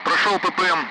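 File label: Speech, Russian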